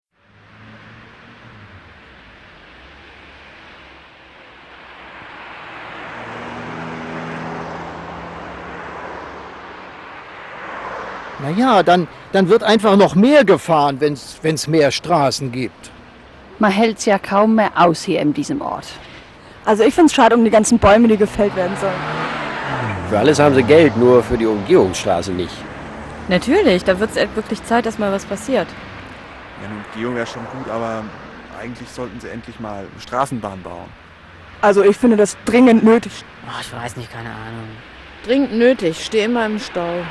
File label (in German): strassenumfrage; Audacity; linux magazin